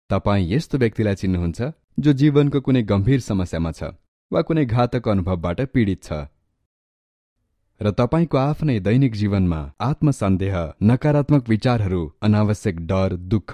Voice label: Nepali Narration; nepalese